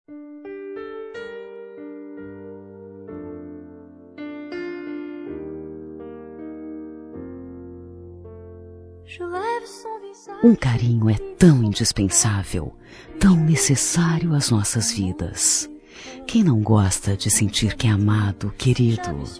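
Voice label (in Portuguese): Rom seus carinhos, feminino; Telemensagens; Românticas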